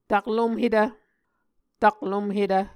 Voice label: "taklomhetv", Muscogee